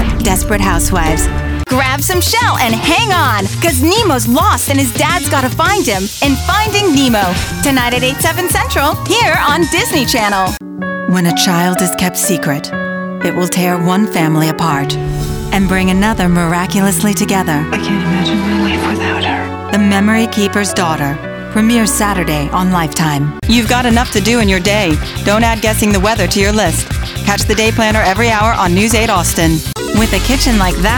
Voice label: women promos

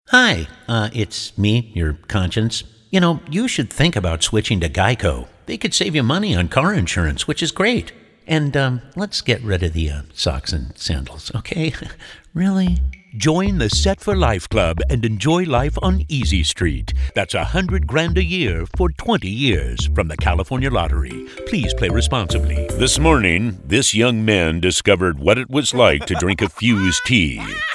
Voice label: men commercial

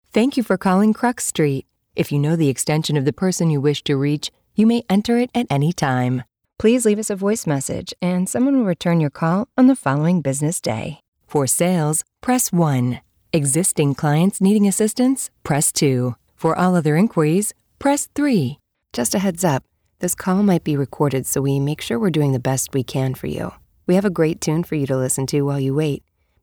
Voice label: women telephone; Confidently cool and conversationally warm. Relatable, real, national sound.

